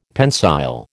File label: "pensile", American